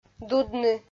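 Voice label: "дудны", Komi, female; kpv audio dict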